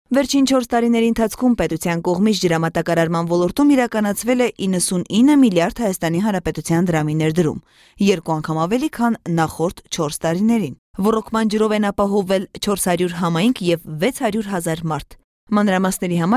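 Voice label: Armenian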